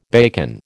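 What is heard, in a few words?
"bacon", American English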